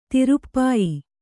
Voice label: "ತಿರುಪ್ಪಾಯಿ", Kannada

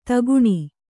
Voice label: "ಧೊಕ್ಕನೆ", Kannada

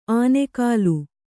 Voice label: "ಆನೆಕಾಲು", Kannada

